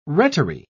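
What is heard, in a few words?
"rettery", British English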